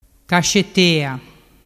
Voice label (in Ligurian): "cascettea", dialettu